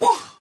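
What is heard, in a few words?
"yeah" cartoon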